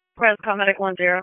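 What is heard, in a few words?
EMS Dispatch, p25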